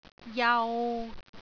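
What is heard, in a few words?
"jau3", Cantonese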